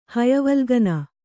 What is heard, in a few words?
"ಹಯವಲ್ಗನ", Kannada